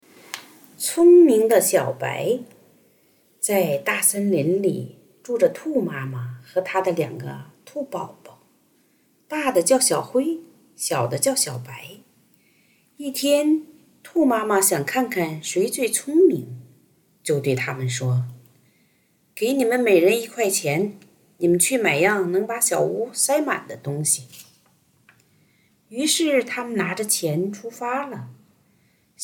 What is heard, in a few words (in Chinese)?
儿童故事; 聪明的小白